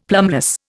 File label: "plumbless", Indian; Dictionary